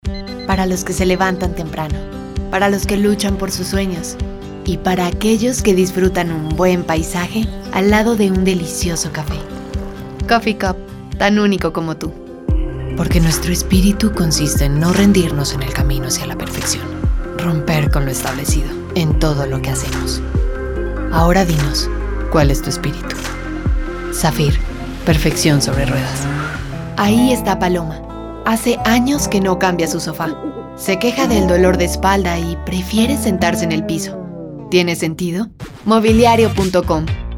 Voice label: spanish aksan, spanish female voices

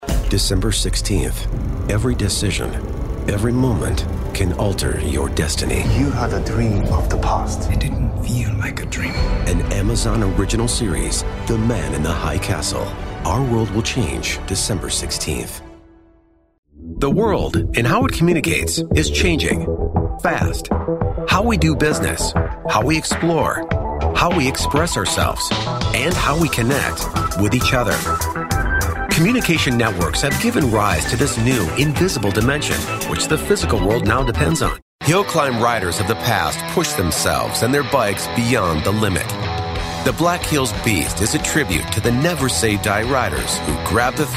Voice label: anglo american accent; english male voices

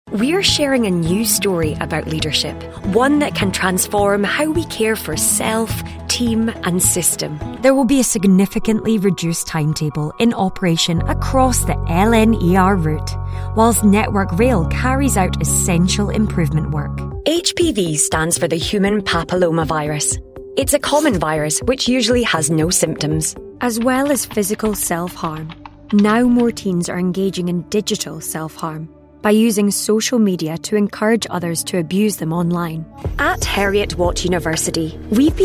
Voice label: english female voices scottish accent

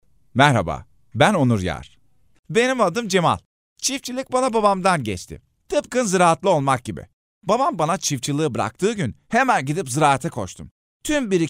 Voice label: diyalekt, turkish dialect voices

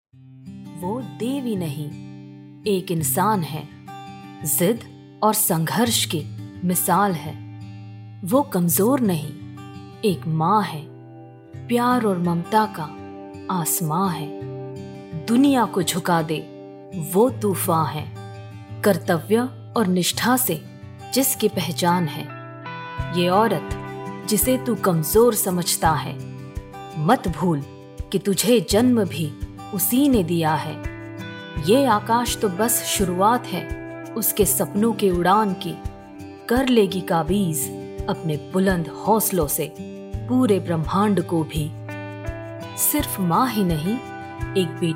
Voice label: hindi

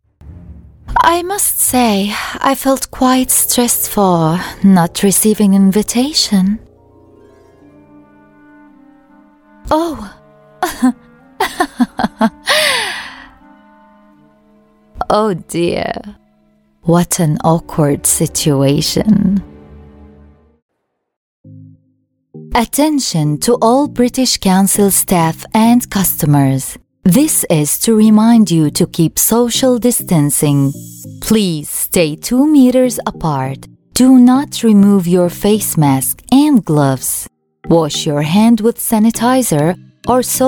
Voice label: english, english female voices arabic accent

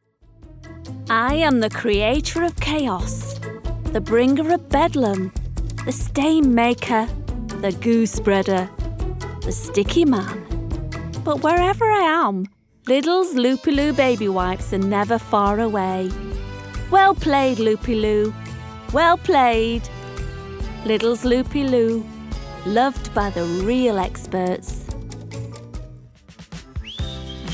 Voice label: Commercial, english female voices british accent

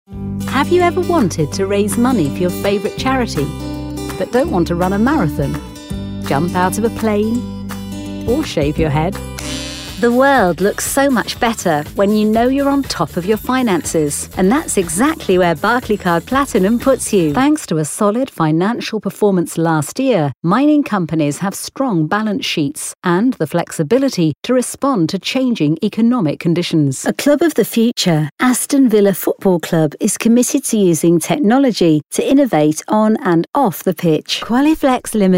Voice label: english female voices british accent

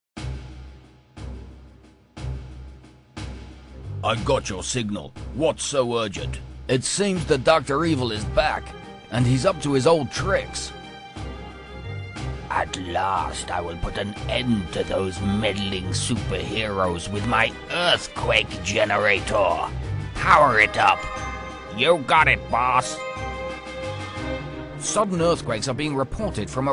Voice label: game, game dubbing male voices british accent

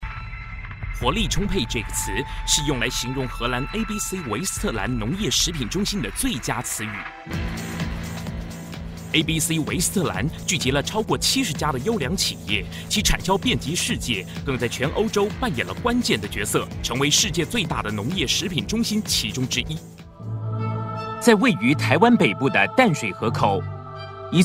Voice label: chinese male voices

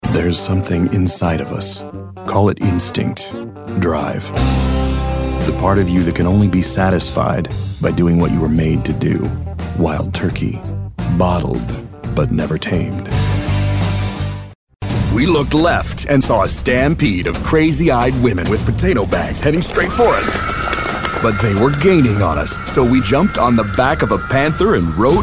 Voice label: american accent; english male voices

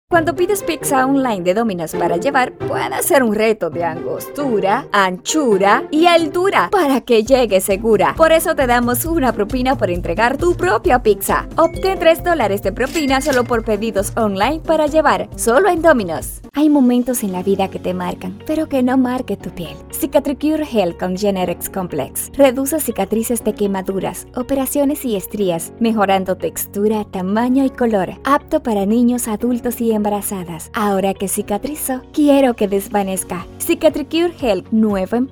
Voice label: spanish female voices

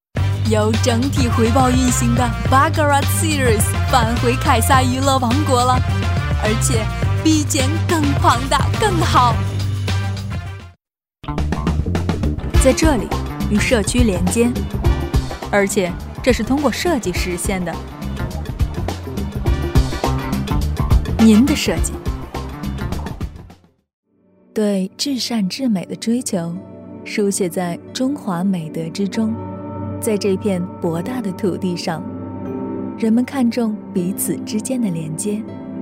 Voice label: chinese female voices

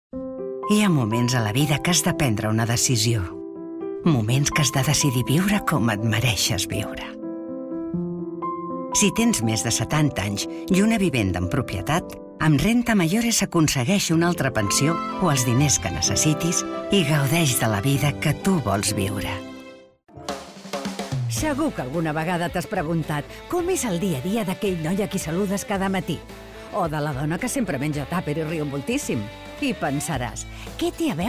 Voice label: spanish, spanish female voices

